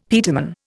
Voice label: "peterman", Indian; Dictionary